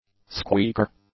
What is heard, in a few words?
"squeaker", english; nouns